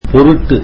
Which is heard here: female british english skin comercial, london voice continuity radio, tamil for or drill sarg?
tamil for